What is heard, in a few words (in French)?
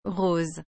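"rose", French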